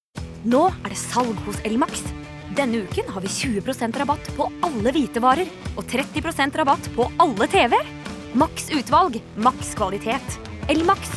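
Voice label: no commercial, Norwegian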